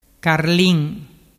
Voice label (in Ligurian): "carlin", dialettu